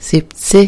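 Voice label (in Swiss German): "sìbzeh", Alsatian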